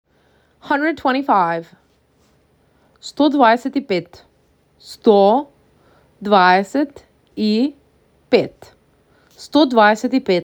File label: MAC 6, macedonian phrasebook